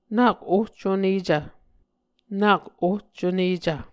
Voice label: "nak ohconecv", Muscogee